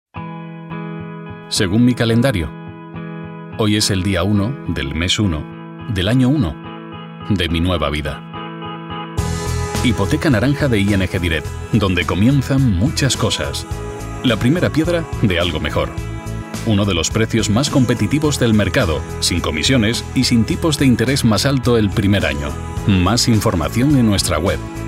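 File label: Spanish, male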